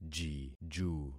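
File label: "jiju", concatenated syllables; Affixing experiment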